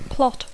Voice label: "plot", English

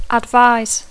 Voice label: "advise" English

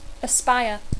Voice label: "aspire", English

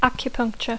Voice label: "acupuncture", English

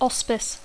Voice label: "auspice", English

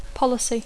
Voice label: "policy", English; política

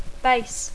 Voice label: "base", English

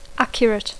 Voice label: "accurate", English

